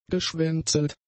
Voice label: "geschwänzelt", German; adjectives